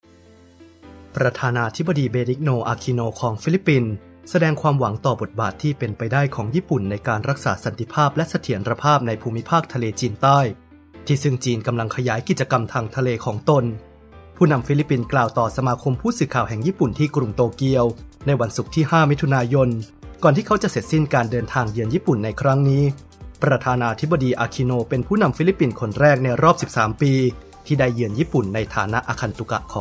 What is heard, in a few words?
news